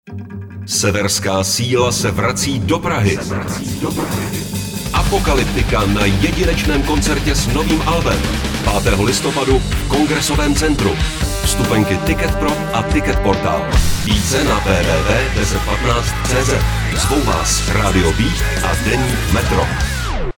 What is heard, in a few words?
spot